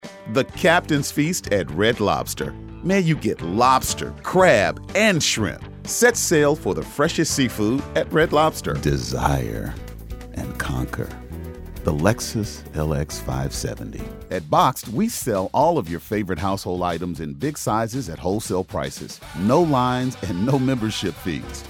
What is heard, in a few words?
Voiceover Actor